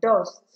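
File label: "dust", Nigerian Pidgin, female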